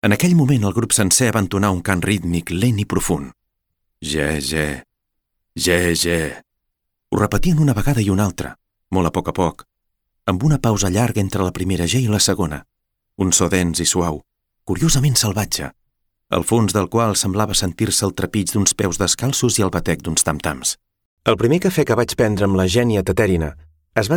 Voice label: catalan audiobooks; Spanish and Catalan professional voice-over artist